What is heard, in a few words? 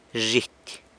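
"zhity", onion, San Lucas Quiaviní Zapotec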